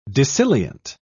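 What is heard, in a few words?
"dissilient", British English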